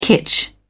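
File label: "kitsch", British English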